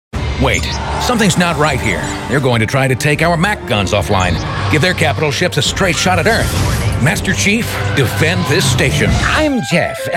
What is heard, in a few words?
commercial